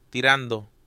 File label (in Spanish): "Tirando", espanhol